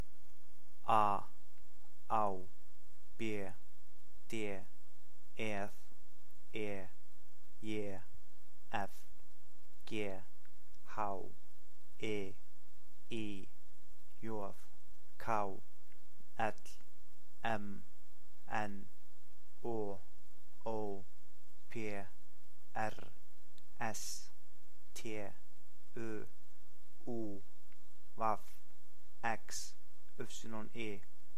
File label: Icelandic alphabet